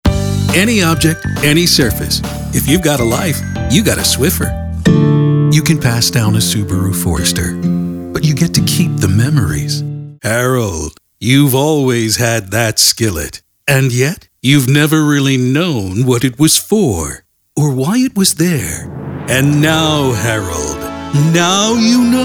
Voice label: commercial